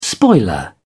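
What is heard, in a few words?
"spoiler", British English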